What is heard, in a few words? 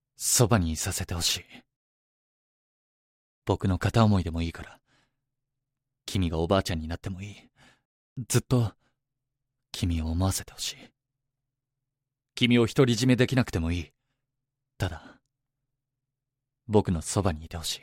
male; 情熱的な愛の告白